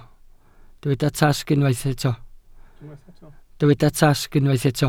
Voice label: "tasg", Welsh